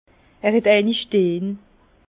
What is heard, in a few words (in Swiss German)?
"steh", Bas Rhin; vin